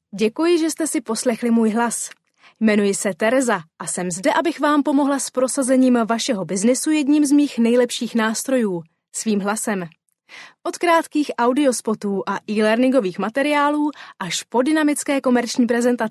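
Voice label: Czech, female, narration; voice over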